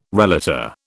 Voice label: "relator", British

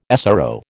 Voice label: "SRO", American

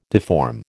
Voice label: "deform", American

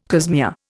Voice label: "cosmea", Indian

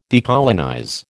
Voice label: "decolonize", American

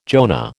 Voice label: "Jonah", American

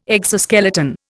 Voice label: "exoskeleton", Indian

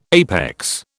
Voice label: "APEX", British

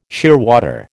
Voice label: "shearwater", American

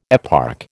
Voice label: "eparch", American

